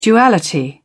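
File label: "duality", British English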